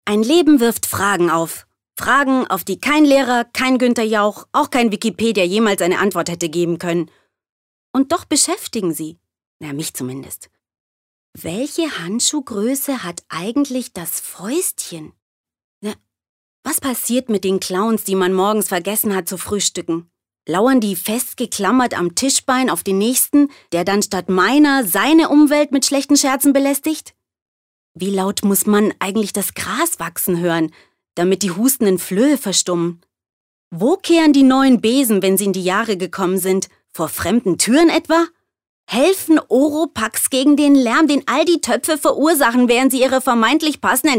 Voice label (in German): Schauspiel Witzig